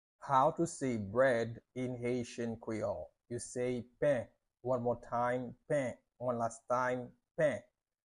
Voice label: How to say Bread in Haitian Creole Pen pronunciation by a native Haitian tutor